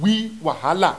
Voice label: "W wahala", Yoruba; ALPHABET RHYME IN BITS